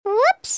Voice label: Oops, Cartoon; bruitage